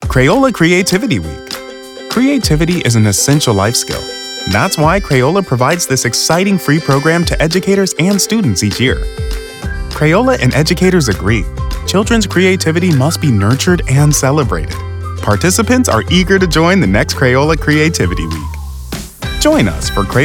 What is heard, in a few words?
Exciting, Friendly, Warm, Engaging; Crayola Creativity Week